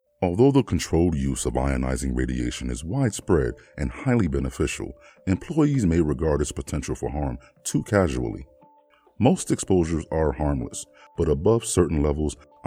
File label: Technical Narration